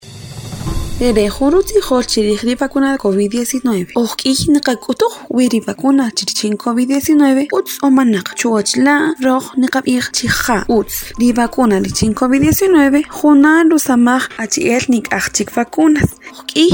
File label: SPOT KAQCHIKEL